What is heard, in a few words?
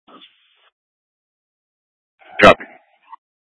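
Incident Tactical 1, Scanner audio recording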